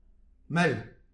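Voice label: "mell", Breton; petit precis de prononciation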